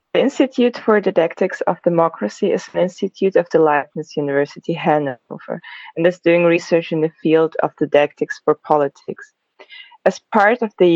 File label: english